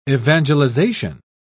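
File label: "evangelization", British English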